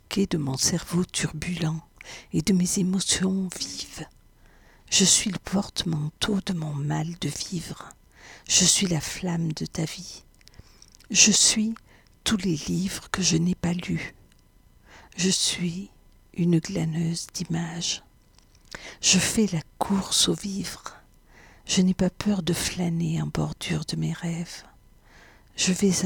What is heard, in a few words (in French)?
ma voix basse